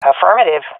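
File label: affirmation, swat female; vo; Counter Strike Model Pack; CS:GO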